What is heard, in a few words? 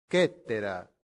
"cetera", latin; santillana